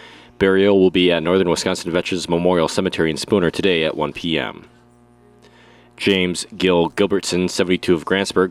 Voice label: Funeral Announcements